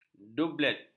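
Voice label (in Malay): "dublet", Malay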